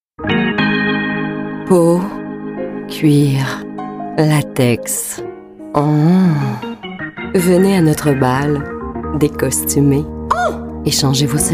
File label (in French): Sexy